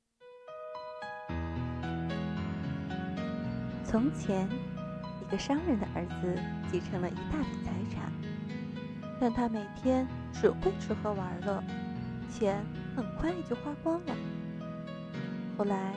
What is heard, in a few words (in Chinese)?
儿童读物; 会飞的箱子